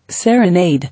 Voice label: "Serenade", English